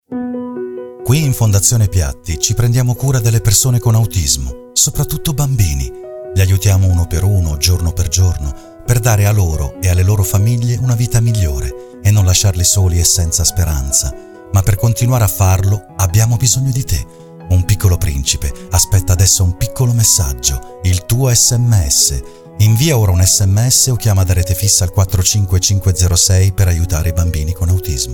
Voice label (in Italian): audio spot TV; fondazione piatti